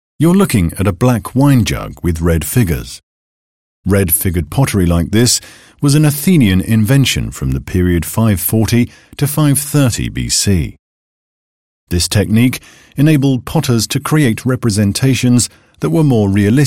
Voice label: audioguide; Dacia Felix